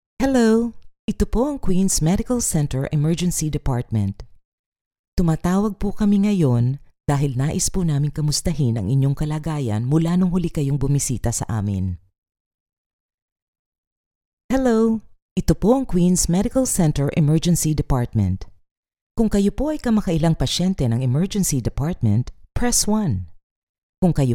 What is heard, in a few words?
Tagalog IVR